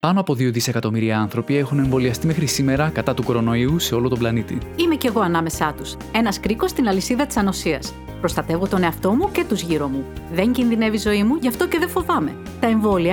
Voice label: Radio Spot